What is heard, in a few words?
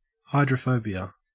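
"hydrophobia", Australian English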